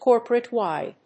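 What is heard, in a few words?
"corporate-wide", English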